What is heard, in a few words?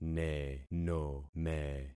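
"nenome", concatenated syllables; Affixing experiment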